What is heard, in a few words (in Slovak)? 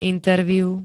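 "interview", Slovenské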